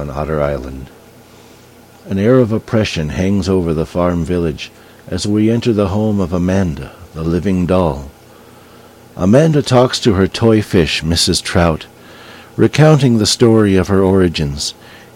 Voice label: narrator lines